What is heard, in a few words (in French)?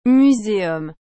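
"museum", French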